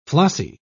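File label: "flossy", British English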